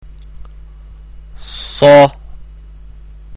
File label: "Saad", arabic; arabic-malayalam; അക്ഷരമാല